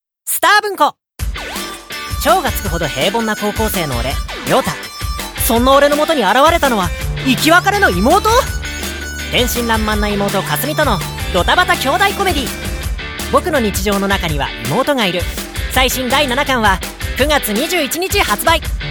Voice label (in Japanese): ナレーション